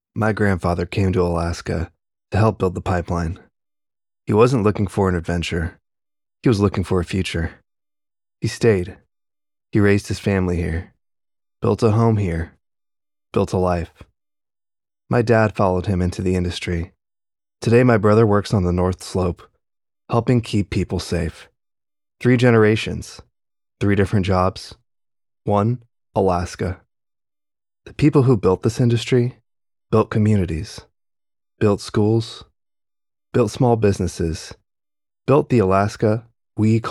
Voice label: radio ad, 30 second radio ad commercial spot